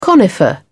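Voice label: "conifer", British English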